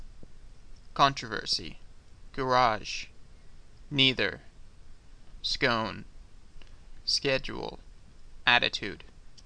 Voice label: Lakeland FL male